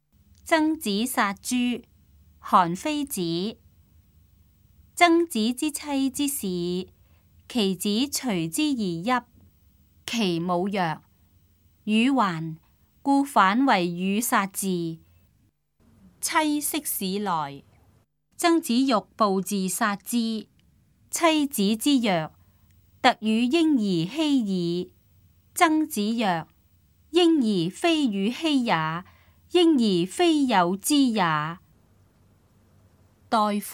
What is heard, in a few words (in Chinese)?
cantonese; 曾子殺豬; 粵語